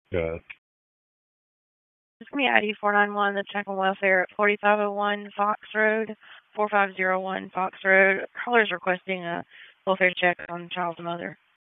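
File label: Police Dispatch, p25; Raleigh; Northeast District; wake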